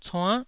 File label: "cuann9", Taiwanese syllable